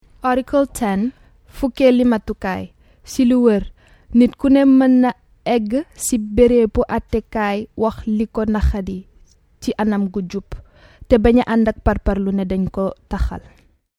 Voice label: Wolof

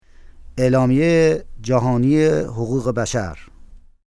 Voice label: Persian

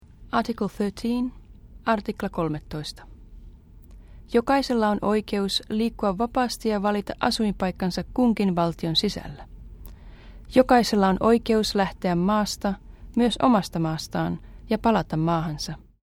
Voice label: Finnish

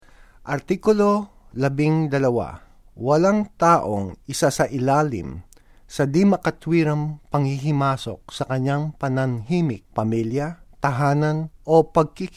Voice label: Tagalog

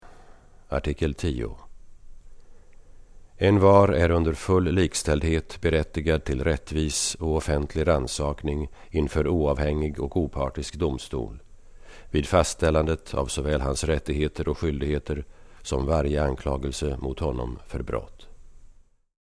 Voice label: Swedish

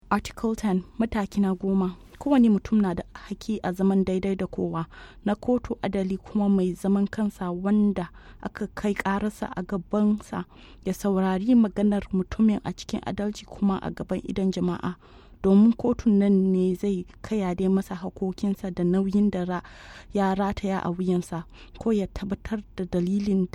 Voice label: Hausa

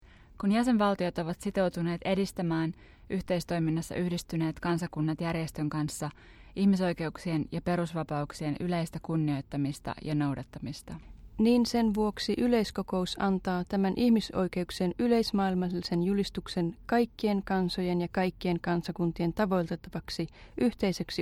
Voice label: Finnish

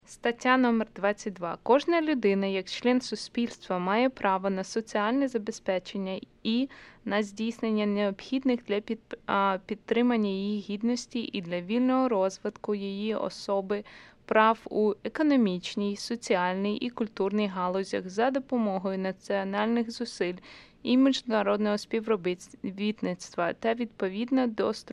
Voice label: Ukranian

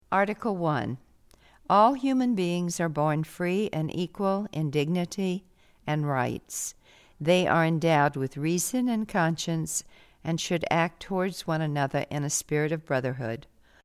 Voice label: English

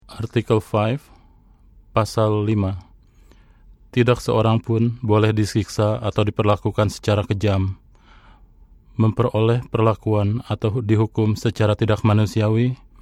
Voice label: Indonesian

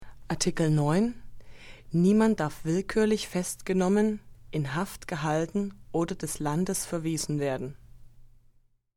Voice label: German

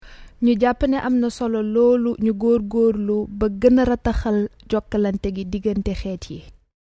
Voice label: Wolof

